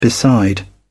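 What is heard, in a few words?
"beside", British English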